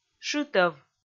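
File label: "шытӧв", Komi, female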